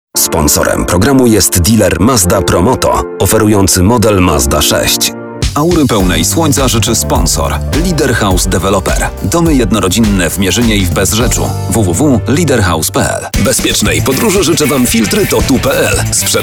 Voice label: Commercial